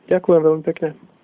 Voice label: thanks, slovak